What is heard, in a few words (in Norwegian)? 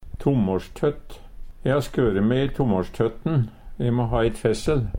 tammarsjtott, Numedalsmål; Substantiv hankjønn